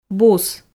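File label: "бус", Komi, female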